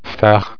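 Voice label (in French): "phare", French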